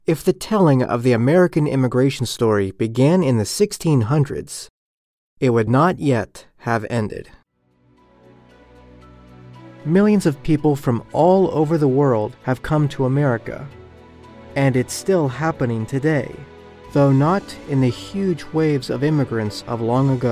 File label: documentaries samples